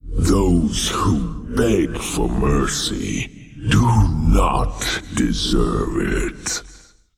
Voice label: Male Old God Bark; Y Shaarj

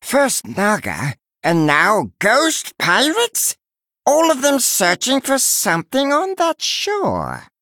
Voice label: Male Murloc; Finley

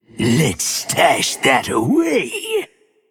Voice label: male undead, Play

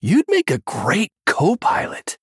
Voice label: Male Human Idle

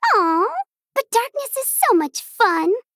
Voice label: Female Gnome; RENOUCE DARKNESS

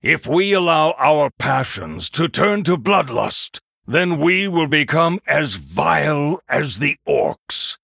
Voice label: Male Human

